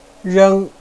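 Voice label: "reng1", Chinese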